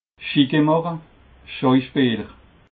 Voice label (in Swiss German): "chique macher", alsace